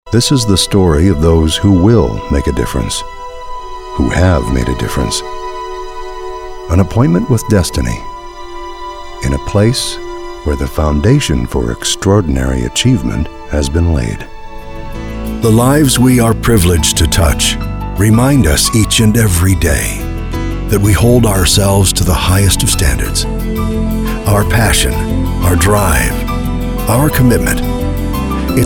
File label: Narration